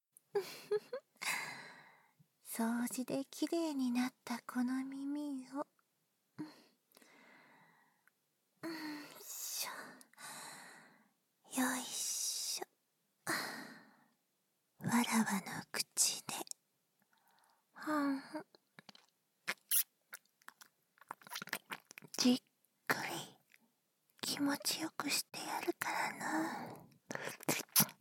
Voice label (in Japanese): 耳舐め手コキ（バイノーラルマイク 効果音あり）; バイノーラル編集 効果音あり